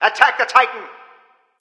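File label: vox attack, male; smite; susano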